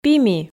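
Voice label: "пими", Komi, female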